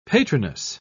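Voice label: "patroness", British English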